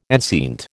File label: "enceinte", American